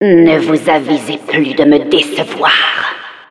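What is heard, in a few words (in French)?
Announcer you must not fail again, French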